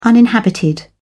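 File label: "uninhabited", British English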